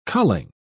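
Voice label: "culling", British English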